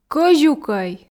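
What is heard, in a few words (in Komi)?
кӧзюкӧй, Komi, female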